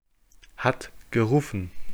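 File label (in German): "hat gerufen", German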